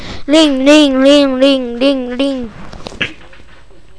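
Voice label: "ring", spoken word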